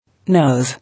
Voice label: "Nose", English